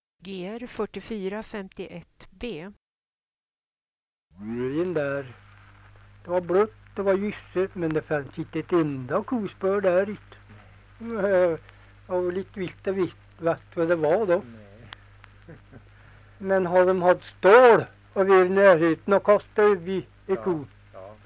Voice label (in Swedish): Hede, dialekter